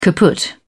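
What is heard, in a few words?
"kaput", British English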